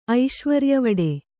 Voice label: "ಐಶ್ವರ್ಯವಡೆ", Kannada

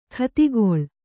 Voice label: "ಗತಿಗೊಳ್", kannada

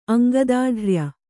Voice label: "ಅಂಗದಾಢ್ರ್ಯ", Kannada

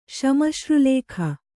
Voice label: "ಶ್ಮಶ್ರುಲೇಖ", Kannada

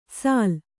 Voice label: "ಸಾಲ್", kannada

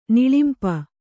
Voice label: "ನಿಳಿಂಪ", kannada

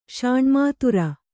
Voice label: "ಷಾಣ್ಮಾತುರ", Kannada

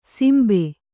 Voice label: "ಸಿಂಬೆ", Kannada

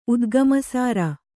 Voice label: "ಉದ್ಗಮಸಾರ", Kannada

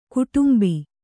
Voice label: "ಗೋಡುಂಬ", Kannada

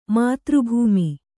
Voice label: "ಮಾತೃಭೂಮಿ", Kannada

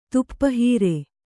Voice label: "ತಾಪಹರ", Kannada

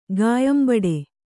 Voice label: "ಗಾಯಂಬಡೆ", Kannada

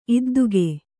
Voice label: "ಇದ್ದುಗೆ", kannada

